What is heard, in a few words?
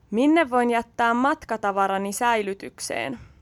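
Finnish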